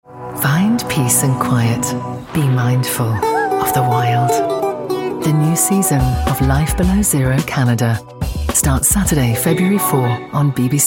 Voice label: tv promo showreel